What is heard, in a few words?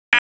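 Police Southeast District Dispatch, p25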